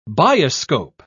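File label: "bioscope", British English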